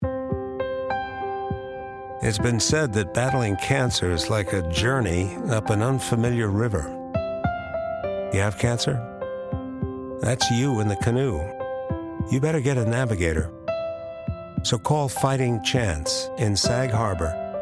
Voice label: radio spot